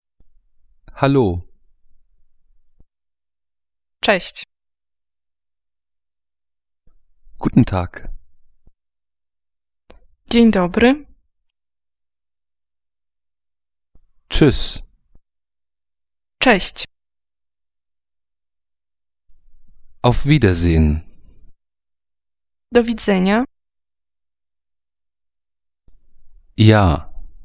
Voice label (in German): polnisch